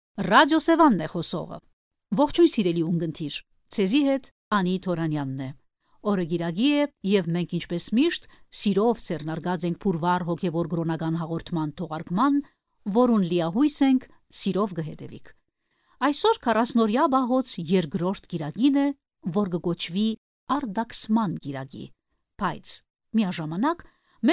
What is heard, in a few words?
female armenian voice over narrative